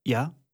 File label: german voice; wyrmsun